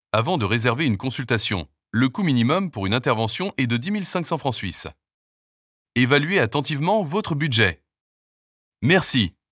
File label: Vocal pop up french